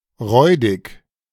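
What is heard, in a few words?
"räudig", German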